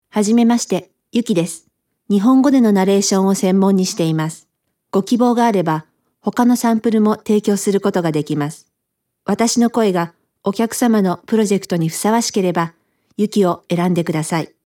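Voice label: Japanese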